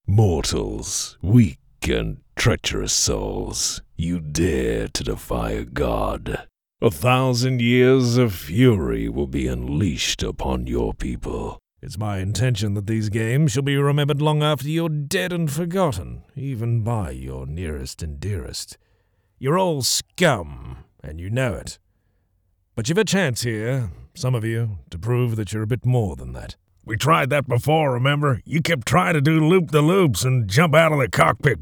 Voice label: Character Voices

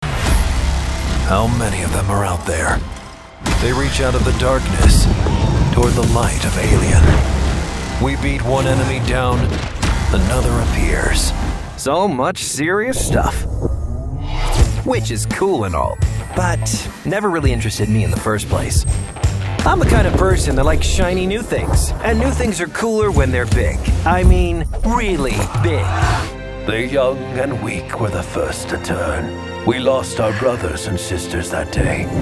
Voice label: Trailer

